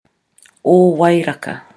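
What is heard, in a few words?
"owairaka", Māori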